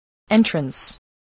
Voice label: "entrance", voice, english